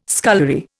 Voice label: "scullery", Indian

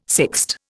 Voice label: "sixte", Indian

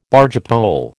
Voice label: "bargepole", American

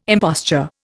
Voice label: "imposture", Indian

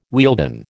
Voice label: "Wealden", American

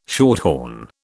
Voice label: "shorthorn", British

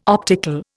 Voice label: "optical", Indian

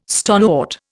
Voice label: "stonewort", Indian

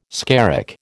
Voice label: "skerrick", American

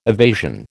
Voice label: "evasion", American

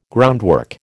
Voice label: "groundwork", American

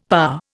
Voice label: "parr", Indian; Dictionary

